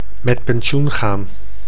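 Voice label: "met pensioen gaan", Dutch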